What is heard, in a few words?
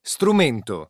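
"strumento", Italian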